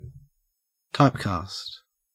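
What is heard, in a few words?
"typecast", Australian English; pronunciations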